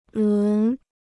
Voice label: "轮", Chinese

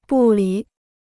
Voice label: "不理", Chinese

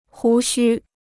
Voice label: "胡须", Chinese

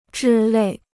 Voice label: "之类", Chinese

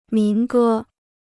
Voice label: "民歌", Chinese

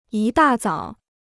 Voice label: "一大早", Chinese

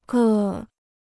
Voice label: "各", Chinese

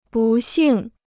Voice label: "不幸", Chinese

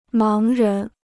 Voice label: "盲人", Chinese

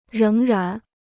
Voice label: "仍然", Chinese

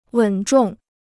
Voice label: "稳重", Chinese; wěn zhòng; steady; earnest

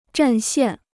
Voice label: "占线", Chinese

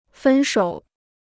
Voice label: "分手", Chinese

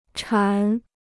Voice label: "馋", Chinese

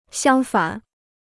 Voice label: "相反", Chinese

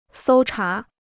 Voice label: "搜查", Chinese

